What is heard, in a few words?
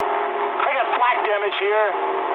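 damaged anti-aircraft mood medium, speech English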